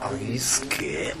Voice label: "agisuke", minamiyamagata